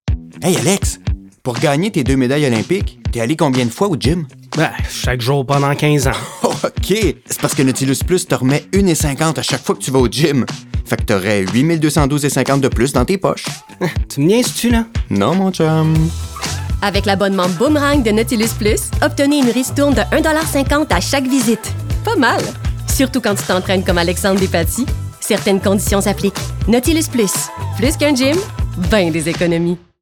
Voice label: Radio, FR; Nautilus Plus